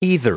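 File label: "either", English; adjective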